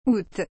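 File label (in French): "aout", French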